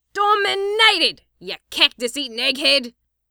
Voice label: female sniper voices; sniper domination engineer

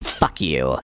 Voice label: "fuck you" high pitch

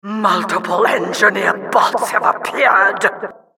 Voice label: announcer engibots appear

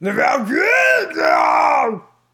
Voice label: crazy mumble; mvm forever

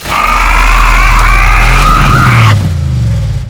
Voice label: nuke soldier angry

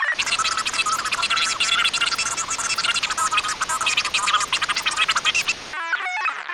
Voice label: radio chatter

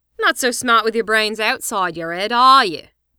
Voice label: female sniper voices; sniper domination engineer

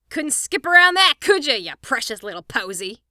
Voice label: sniper domination scout, female sniper voices

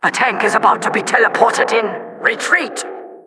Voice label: announcer tank teleport

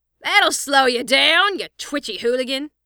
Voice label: sniper domination scout, female sniper voices

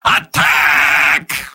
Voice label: battle cry; soldier; mvm

